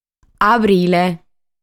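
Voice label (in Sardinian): "Abrile", Sardinian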